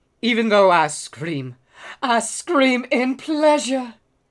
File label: Male Beggings